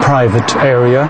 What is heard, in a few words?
LoFi Words, LoFi Vocals